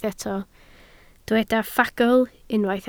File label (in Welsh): "ffagl", Welsh